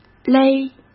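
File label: "勒", Mandarin Chinese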